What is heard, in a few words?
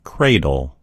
"cradle", en US; gcompris